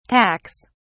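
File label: "tacks", British English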